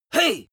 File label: angry warning hey male